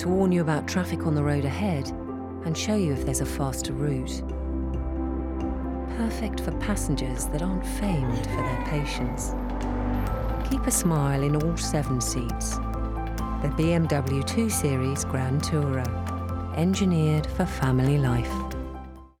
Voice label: RADIO BMW NAVIGATION FULL MIX FEMALE VO